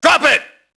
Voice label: police alert; enemy; Max Payne

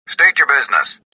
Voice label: suspicious; XBOX

